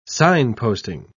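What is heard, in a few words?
"signposting", British English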